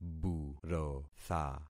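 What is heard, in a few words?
"burotha", concatenated syllables; Affixing experiment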